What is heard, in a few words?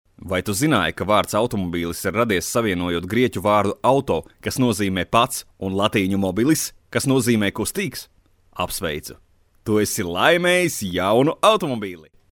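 Latvian, male, commercial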